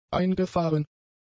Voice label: "eingefahren", German; adjectives